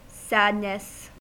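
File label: "sadness", American English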